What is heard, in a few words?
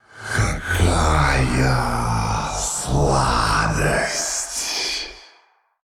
Male Old God Attack; И’Шарадж; Hearthstone: датамайн обновления 5.0